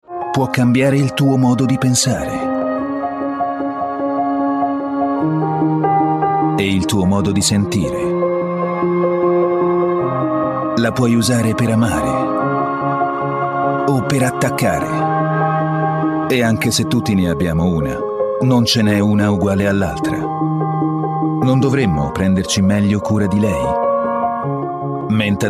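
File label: Italian commercial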